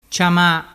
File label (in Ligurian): "ciama", dialettu